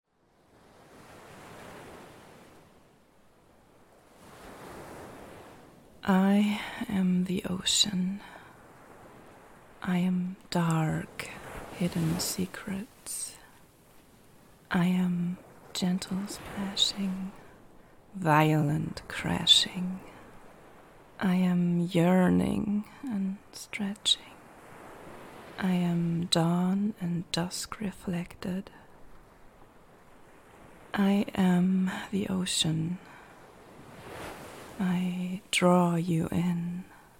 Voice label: Poetry reading; F4A; Ocean